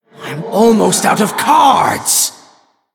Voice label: Male Human Low Cards